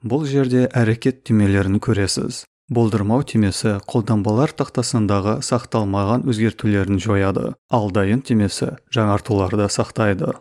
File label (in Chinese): 哈萨克语男样音